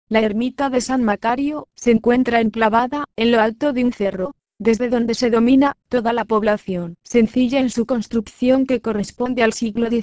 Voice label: Castellano; La ermita de San Macario